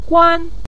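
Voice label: "guan", Mandarin Chinese, neutral tone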